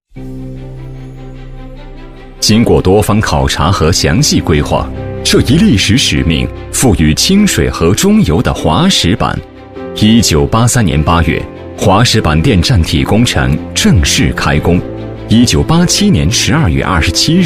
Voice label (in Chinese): 男 解说 电站 历史解说风格, 年轻; 滑石板电站